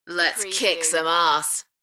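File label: British female Lets kick some arse; beanstalk audio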